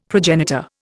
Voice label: "progenitor", Indian; Dictionary